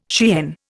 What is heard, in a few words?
"Cheyenne", Indian